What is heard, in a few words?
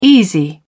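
"easy", British English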